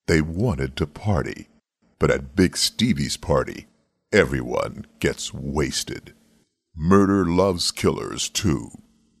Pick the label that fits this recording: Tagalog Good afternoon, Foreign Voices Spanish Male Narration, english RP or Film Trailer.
Film Trailer